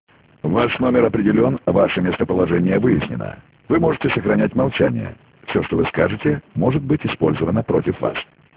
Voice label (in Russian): Avtootvet